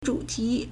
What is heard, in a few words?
"zhu3 ti2", Chinese